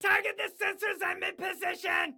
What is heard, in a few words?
Target Sensors, Excited Guy